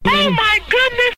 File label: "oh my goodness", expletives, g-rated swears